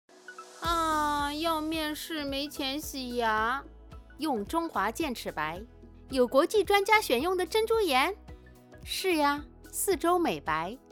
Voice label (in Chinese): 牙膏广告